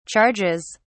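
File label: "charges", British English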